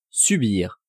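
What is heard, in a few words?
"subir", French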